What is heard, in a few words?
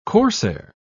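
"corsair", British English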